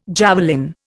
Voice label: "javelin", Indian